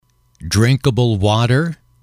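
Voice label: "drinkable water", English, male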